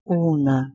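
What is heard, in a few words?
"wlh1nh2", Proto-Indo-European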